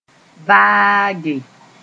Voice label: "vaage", moore

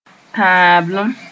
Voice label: "tãablem", moore

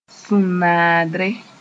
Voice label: "sũnaadre", Moore; kind of poison

